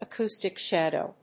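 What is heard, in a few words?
"acoustic shadow", English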